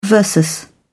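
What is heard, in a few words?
"versus", British English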